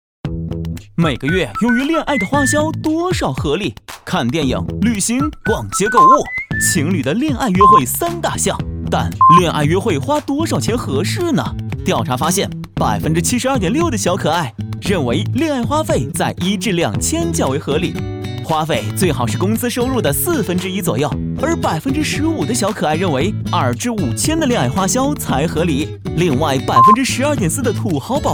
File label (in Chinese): 男 恋爱花销（轻松欢快）; 飞碟说